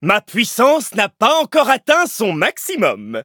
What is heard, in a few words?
levelup, fr